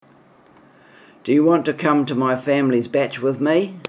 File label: do you want to come to, kiwi english